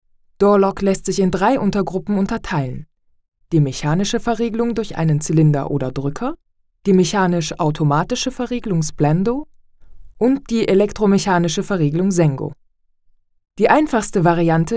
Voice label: e learning

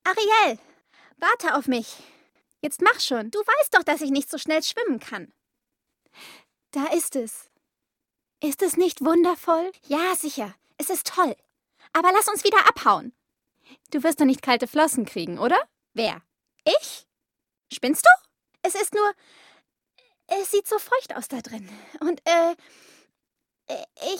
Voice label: cartoon; Sprecher in London, Köln

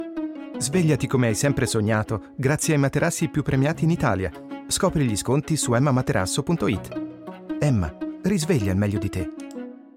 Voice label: commercial tv

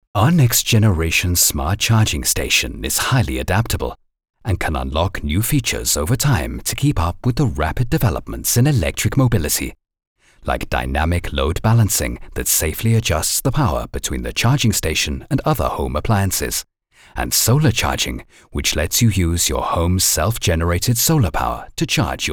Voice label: European English

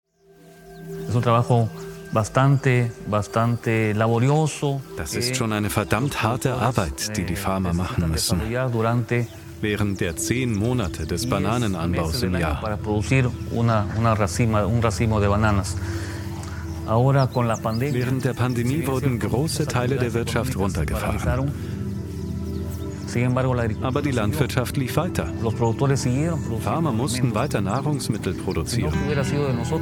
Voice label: voiceover; fairtrade farmers

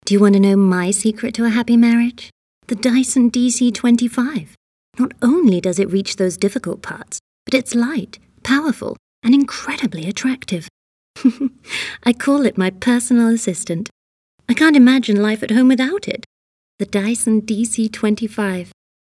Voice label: commercial

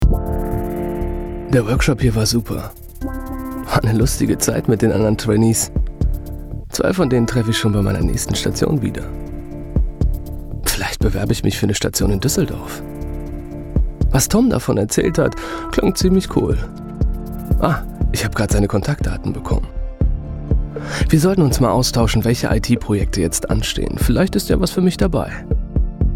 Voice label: spot; eon

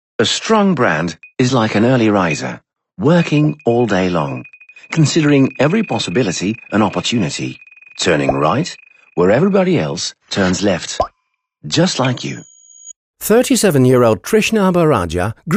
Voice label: english

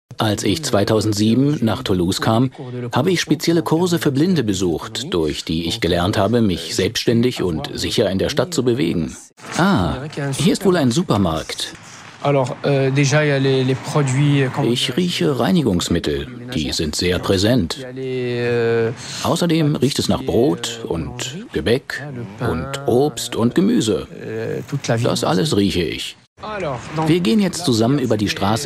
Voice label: voice over; xenius

